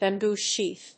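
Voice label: "Bamboo sheath", English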